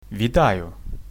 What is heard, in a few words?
hello, ukrainian; Вітаю; Vitayu